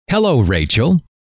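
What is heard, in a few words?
hello, male; trainer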